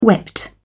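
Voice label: "wept", British English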